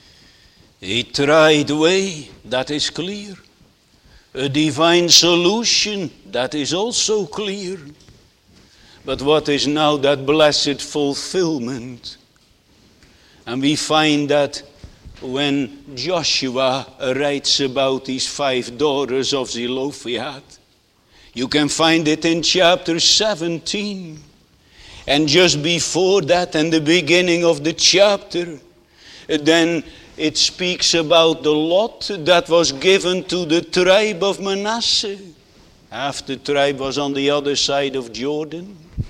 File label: Sermon Continued